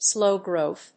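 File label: "slow growth", English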